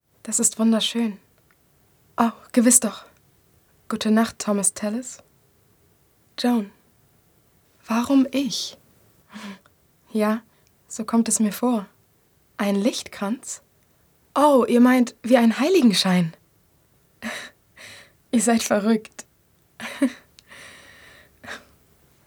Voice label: Synchron historisch romantisch

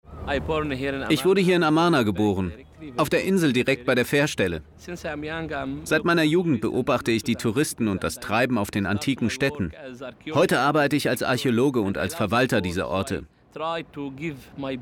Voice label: Doku VO; LOFT VOICES

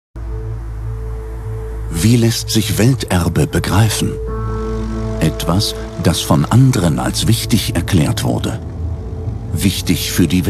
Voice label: imagefilm weltkulturerbe

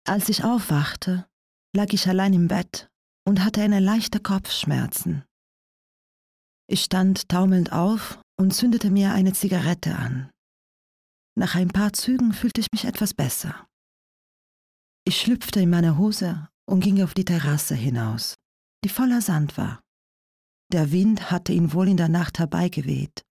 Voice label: hoerprobe deutsch mit akzent

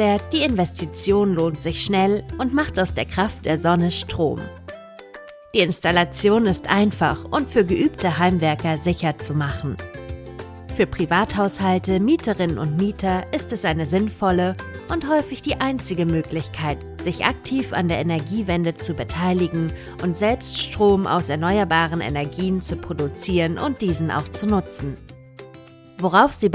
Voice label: Erklaerfilm Balkonkraftwerk

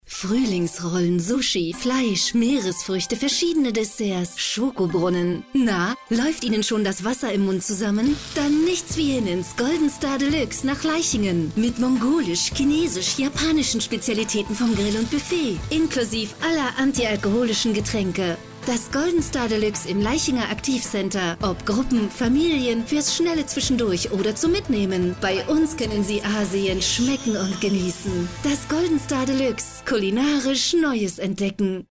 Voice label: spot werblich fraulich weich; golden star deluxe; LOFT VOICES

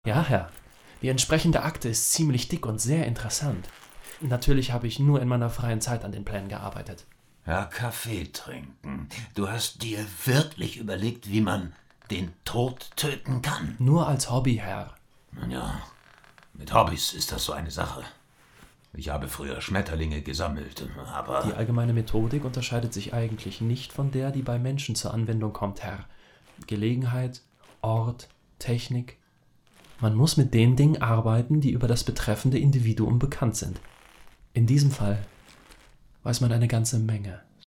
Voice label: Hoerspiel Killer; LOFT VOICES

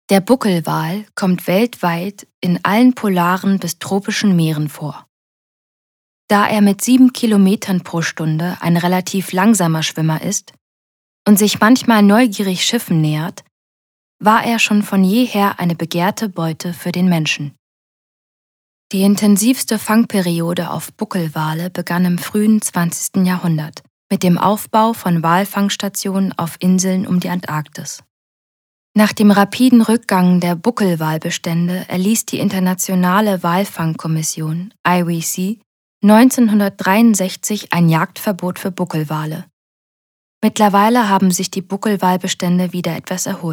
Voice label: Doku

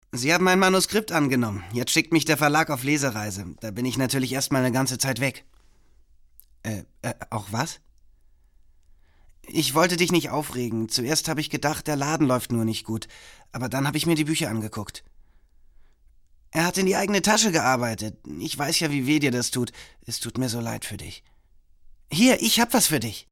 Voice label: synchron

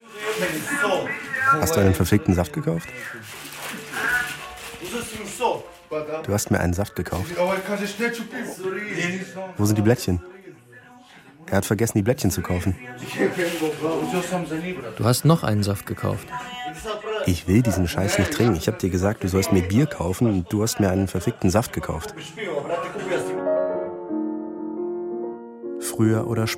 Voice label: Radiofeature; Frueher oder spaeter; DfKultur

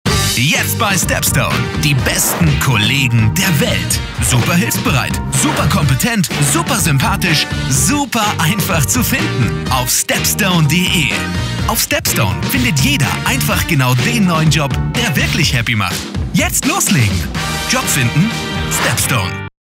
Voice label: Sprecherdemo TV SPOT; StepStone; LOFT VOICES

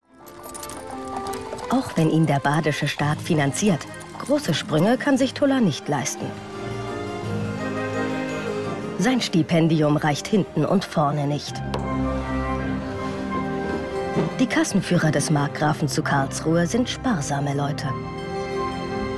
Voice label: Dokumentation; Der Flussbaumeister; LOFT VOICES

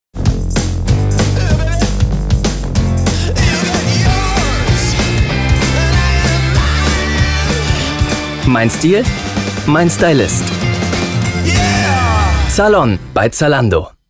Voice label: werbung

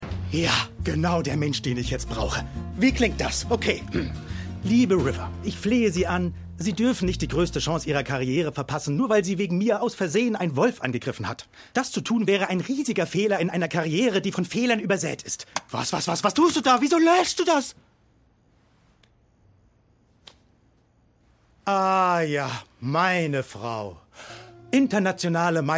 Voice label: Synchron US Serie

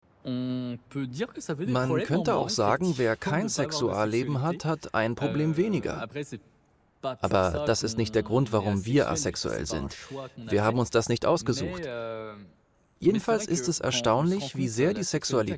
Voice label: Doku de Voiceover; ARTE; No Sex

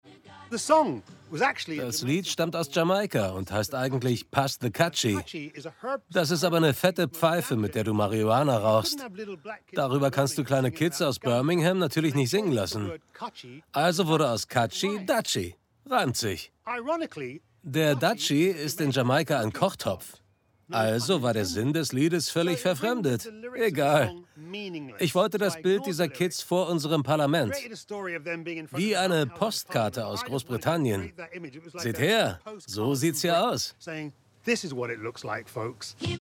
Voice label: Sprachproben Sprachprobe Voiceover; LOFT VOICES

